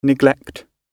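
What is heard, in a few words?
"neglect" British English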